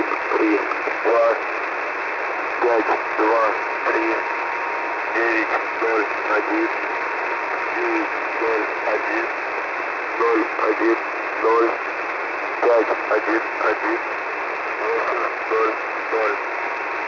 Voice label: Russian Numbers Broadcast, 5959.00 usb; UNID